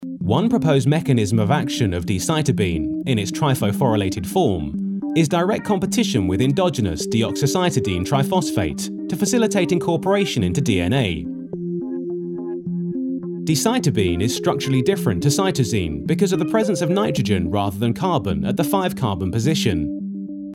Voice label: Medical Explainer